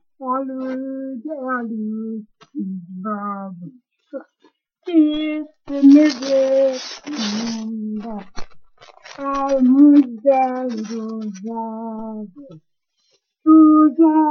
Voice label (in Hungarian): nyelvjaras gyujtesek